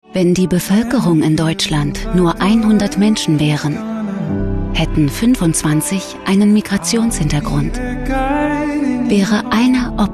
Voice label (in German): Socialspot; AWO